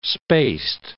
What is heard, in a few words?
"spaced", english